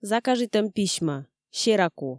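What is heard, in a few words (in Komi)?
"закажитӧм письмӧ сераку", Komi, female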